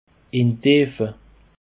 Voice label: "indtiefe", alsace